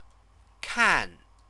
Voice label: "kan", Chinese

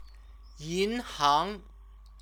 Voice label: "yinhang", Chinese; 银 行; bank; noun

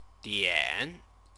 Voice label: "dian", Chinese

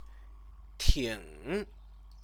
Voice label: "ting", Chinese; 挺; very, quite; adverb

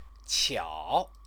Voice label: "qiao", Chinese

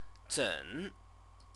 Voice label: "zen", Chinese; CTCFL; University of Oxford

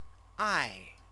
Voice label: "ai", Chinese; CTCFL; University of Oxford

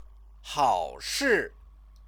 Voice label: "haoshi", Chinese

